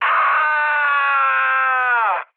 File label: damaged pilot, speech cze, mood high; War Thunder